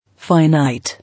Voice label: "Finite", English